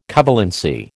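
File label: "covalency", American English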